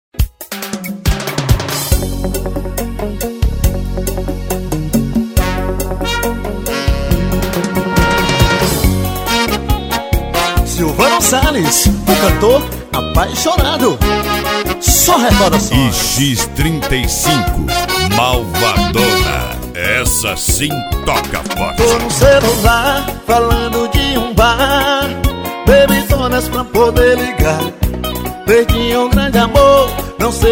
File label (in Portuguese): locutor; ix35 malvadona